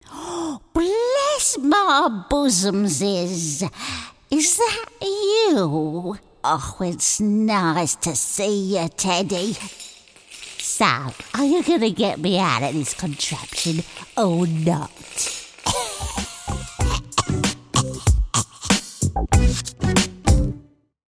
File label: Nasty Grandma Sinister old lady whos locked up for good reason VIDEO GAME; Character